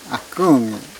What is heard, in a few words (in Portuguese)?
"muito", kalapalo